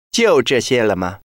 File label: is that all mandarin